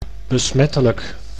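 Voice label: "besmettelijk", Dutch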